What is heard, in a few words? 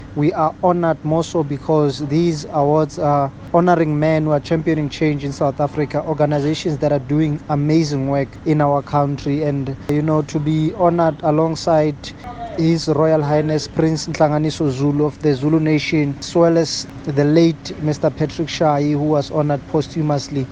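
English; newsflash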